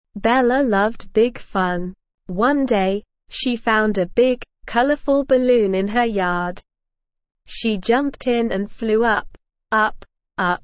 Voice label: ESL Short Stories for Kids Beginner SLOW Reading; Bellas Balloon Ride

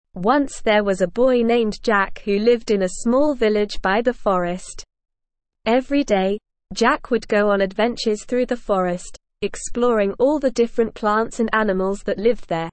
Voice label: ESL Short Stories for Kids SLOW reading; The Secret Treehouse

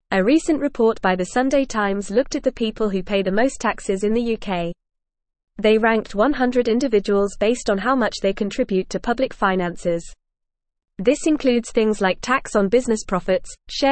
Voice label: English Newsroom Upper Intermediate FAST Reading; UKs Highest Taxpayers Gerko Rowling Sheeran Lead

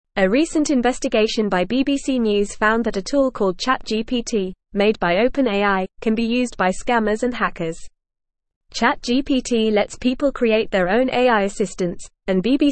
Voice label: English Newsroom Upper Intermediate FAST Reading; OpenAIs ChatGPT Tool Raises Concerns About Cybercri; A recent investigation by BBC News found that a tool called ChatGPT, made by OpenAI, can b

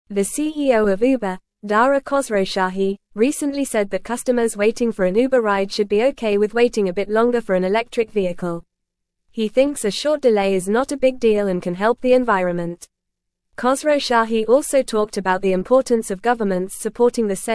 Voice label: English Newsroom Upper Intermediate FAST Reading; Uber CEO Urges Patience for Electric Rides Transition

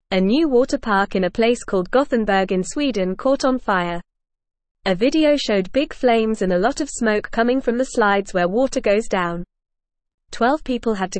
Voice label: English Beginner FAST Reading; Fire at Water Park in Sweden Big and Scary

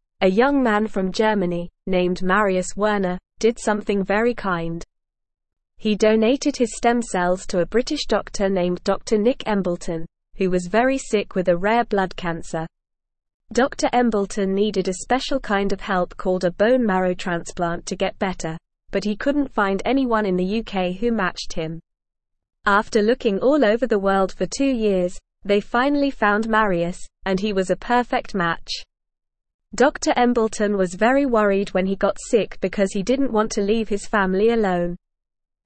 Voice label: English Lower Intermediate NORMAL Reading; A Kind Man Helps a Sick Doctor

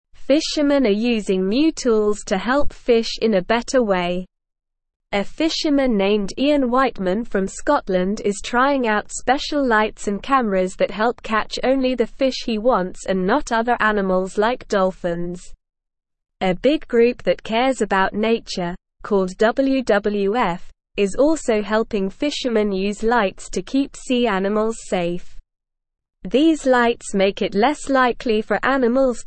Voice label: English Lower Intermediate SLOW Reading; Fishing with New Tools Helps Protect Sea Animals; English Newsroom

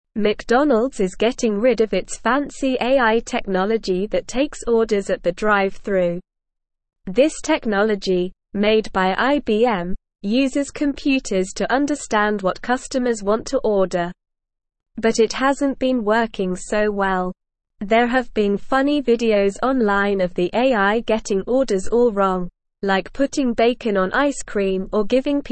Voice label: English SLOW Reading; McDonalds Removes AI Ordering Tech After Comical Mi

